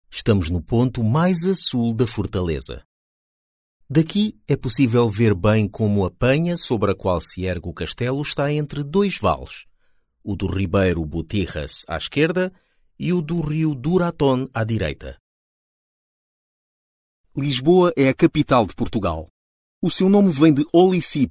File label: portuguese audio guides